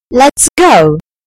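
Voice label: "lets go", British English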